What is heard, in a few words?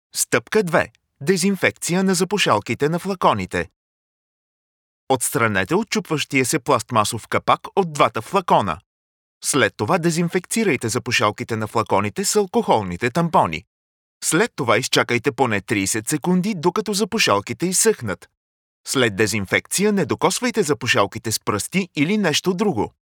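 e-learning, Bulgarian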